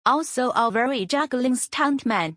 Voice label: book eng